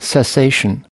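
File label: "cessation", US English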